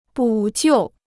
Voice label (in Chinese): "补救", Chinese